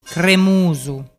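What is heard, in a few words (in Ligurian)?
"cremusu", Ligurian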